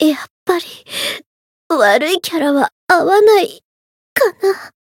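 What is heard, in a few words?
retire, Japanese; melody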